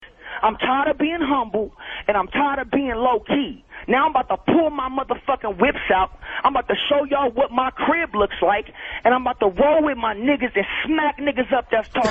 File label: rant; ray j